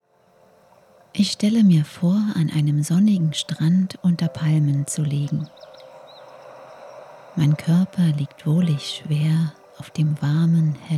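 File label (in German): Entspannung, Sprecherin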